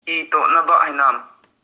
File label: How are you?, Kuki; Eto naba I nam?